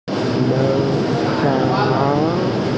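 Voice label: "mo fang", Mandarin Chinese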